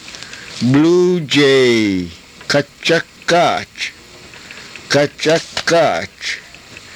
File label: "kachakaach", karuk